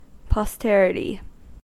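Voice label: "posterity", US English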